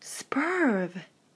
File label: "superb", English